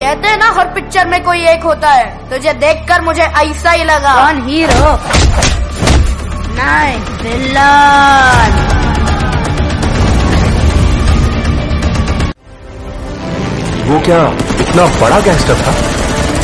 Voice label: dialogue kannada

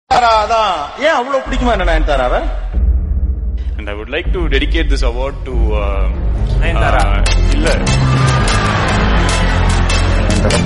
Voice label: motivational attitude tamil

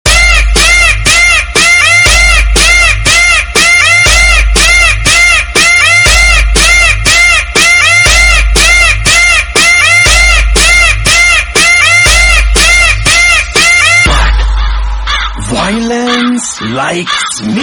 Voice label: dialogue tamil; kgf chapter 2

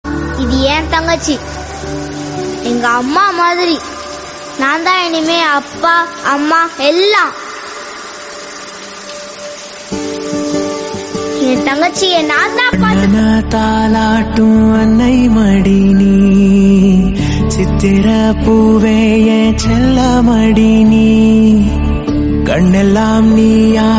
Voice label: tamil dialogue; maaran

